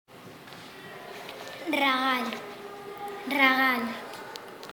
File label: "Gift", SPANISH (Castellano); regal